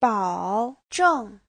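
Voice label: "bǎozhèng", Mandarin Chinese; (động từ) bảo đảm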